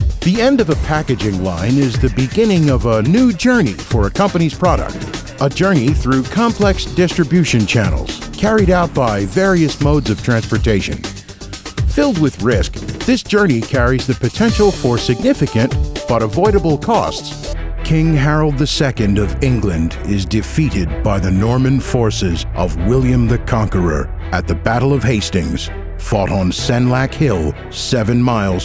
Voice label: Narr